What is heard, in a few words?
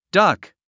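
"duck", English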